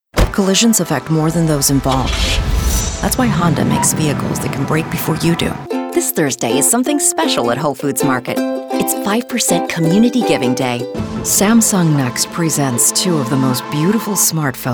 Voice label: Commercial Voice